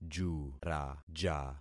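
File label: "juraja", concatenated syllables; Affixing experiment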